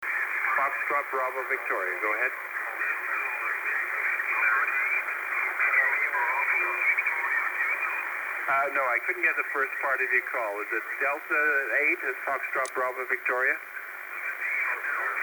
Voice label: 12m ssb; North America